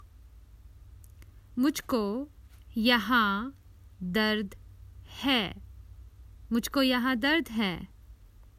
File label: Common Hindi Phrases for travel I have pain here